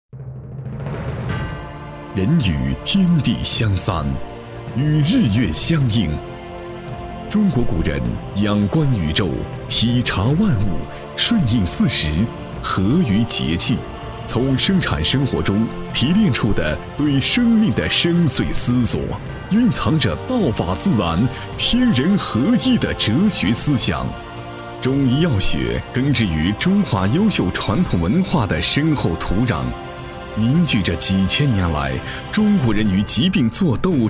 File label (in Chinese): 纪录片, 大气; 传承国粹中医; 共享健康生活